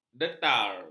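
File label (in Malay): "detar", Malay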